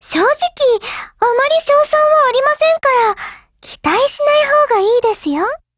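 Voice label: black action, Japanese; GF Alarm; Carcano1938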